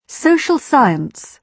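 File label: "social science", British English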